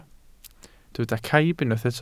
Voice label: "caib", Welsh